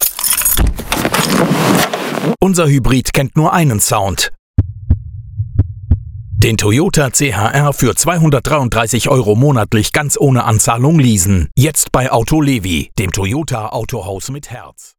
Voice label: german automotive